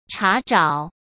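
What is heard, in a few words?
"查找", Mandarin Chinese; hsk; chinese-flashcards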